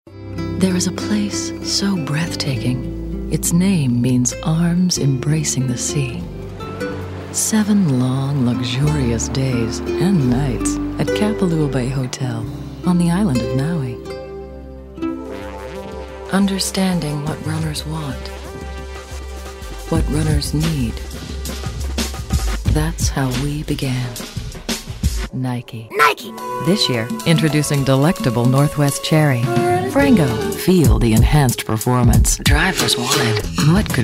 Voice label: Sexy Sultry

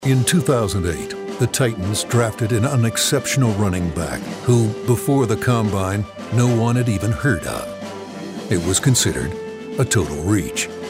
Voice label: Sports Promo

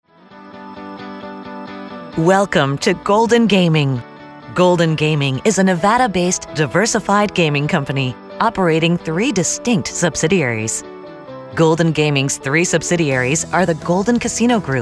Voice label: on hold

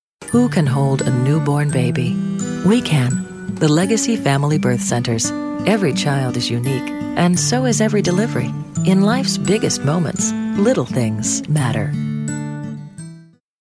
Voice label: Warm Caring

